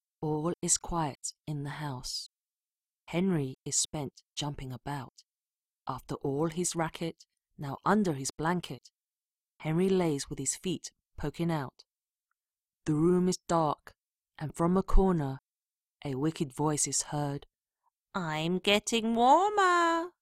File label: narration; The Witchy-Po